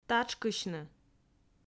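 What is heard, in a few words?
"татшкысьны", Komi, female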